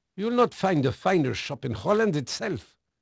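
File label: Dutch male, voice; Pirates Of The Caribbean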